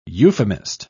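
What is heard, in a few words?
"euphemist", British English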